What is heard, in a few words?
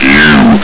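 "you", male soldier voice; hl2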